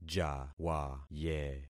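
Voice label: "jawaye", concatenated syllables; Affixing experiment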